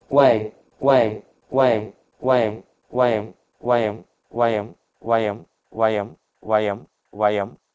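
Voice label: Proto-Indo-European wey to Sanskrit vayam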